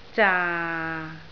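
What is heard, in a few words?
"zaa6", Cantonese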